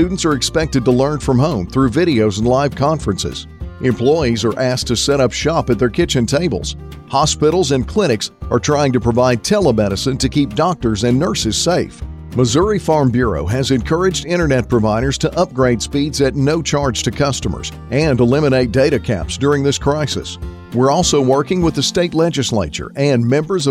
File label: Broadband commercial